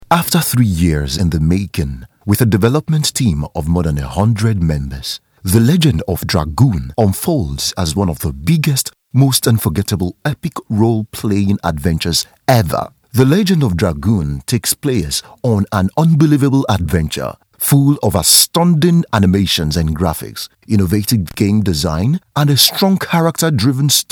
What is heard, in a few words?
Eng Afri Nigerian